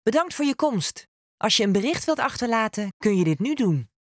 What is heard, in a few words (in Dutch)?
Ring Nederlandse snelle antwoorden